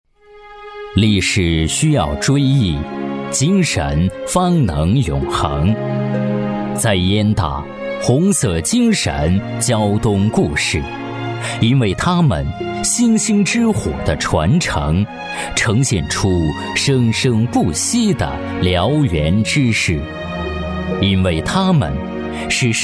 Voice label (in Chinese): 男 学校专题 历史讲述感; 烟台大学; 男200-声音百变